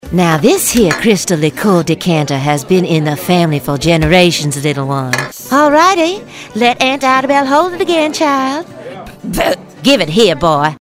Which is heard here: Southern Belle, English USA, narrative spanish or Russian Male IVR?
Southern Belle